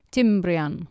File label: "timbrian", Anglo Saxon